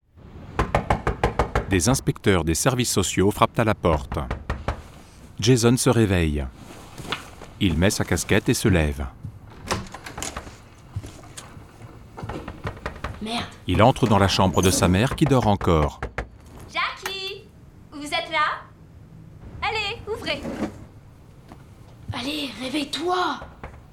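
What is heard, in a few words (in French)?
voix off audiodescription; audela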